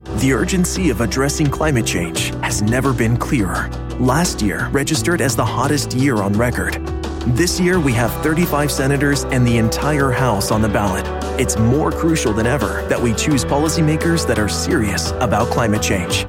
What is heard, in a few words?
Political Issue Climate Change, political voiceover